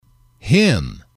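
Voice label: a El, male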